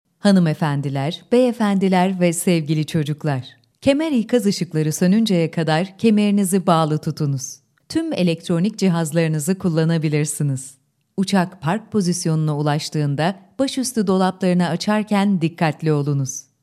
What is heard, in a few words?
in flight turkish